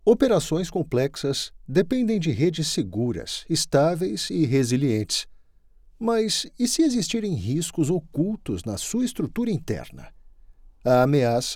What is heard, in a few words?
portuguese brazilian explainer videos